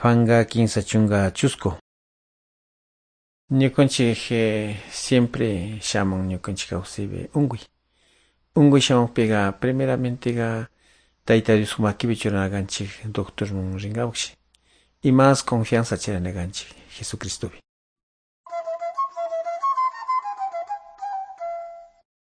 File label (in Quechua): good news quichua de calderon